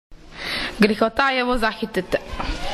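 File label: "grihota", Croatian dialect; bespotrebna šteta ili propust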